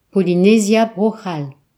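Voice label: "french polynesia", Breton; gcompris; geography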